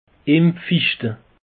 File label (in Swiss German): "fichte", alsace; peche